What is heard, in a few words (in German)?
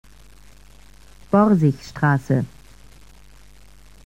Ansage Haltestelle Borsigstr; SSB AG